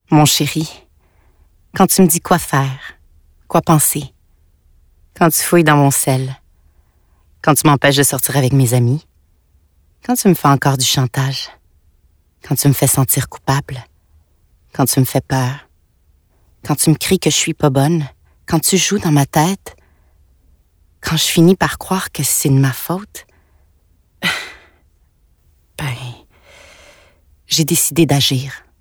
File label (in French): VIOLENCE PUB